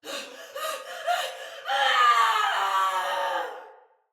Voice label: Death Fire Sinecure Soldier Female; Battle; Barks; Dialog